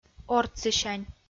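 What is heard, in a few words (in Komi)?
"ортсысянь", Komi, female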